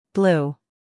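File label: "blue", American English, female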